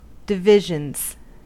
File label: divisions, US; pronunciations